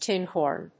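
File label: "tinhorn", English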